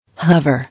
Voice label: "Hover", English